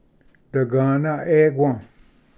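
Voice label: "dagvna equa", Cherokee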